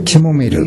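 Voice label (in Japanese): "kimomeru", 南山形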